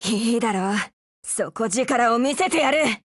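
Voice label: Japanese, Voice; BREAK; GF Alarm; SL8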